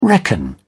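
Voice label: "reckon", British English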